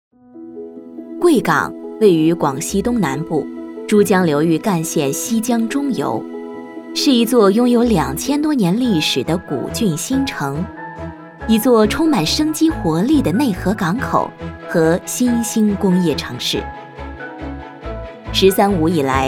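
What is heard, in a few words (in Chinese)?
国语 女声 专题片【大气成熟质感】 大气浑厚磁性 沉稳 积极向上 亲切甜美; 贵港城市宣传片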